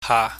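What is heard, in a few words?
"ha", Cantonese, low tone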